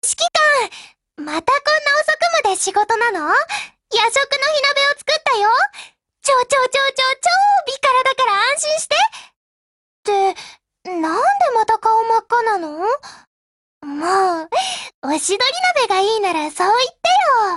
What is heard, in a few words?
DIALOGUE WEDDING, JP; 06TypeSMG